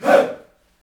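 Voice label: voice "hey", utterances, Africa; Roland LCDP11 Africa VOL-1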